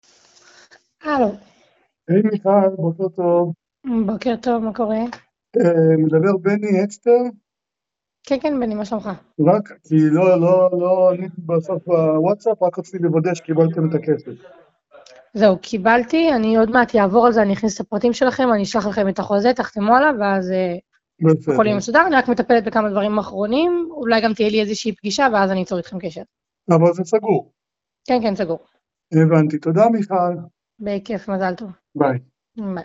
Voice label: Call recording